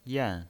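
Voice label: "yan" Mandarin Chinese pinyin voice, fourth tone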